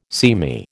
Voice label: "seamy", American